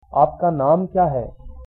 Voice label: name, hindi